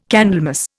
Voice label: "Candlemas", Indian

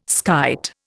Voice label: "skite", Indian

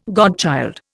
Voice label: "godchild", Indian

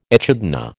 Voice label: "echidna", American

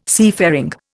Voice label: "seafaring", Indian

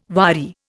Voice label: "wadi", Indian

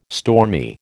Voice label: "stormy", American

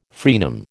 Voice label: "fraenum", American

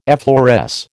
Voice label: "effloresce", American

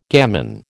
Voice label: "gamin", American

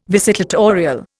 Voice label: "visitatorial", Indian

